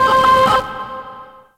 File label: Moon Glitched Voice; Warframe; Orokin Moon; Ambience